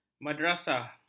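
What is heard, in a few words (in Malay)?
"madrasah", Malay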